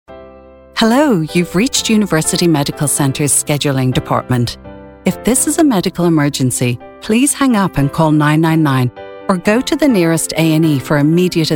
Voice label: telephony